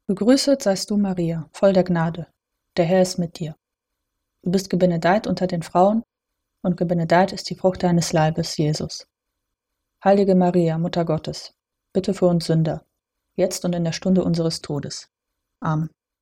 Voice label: hail mary german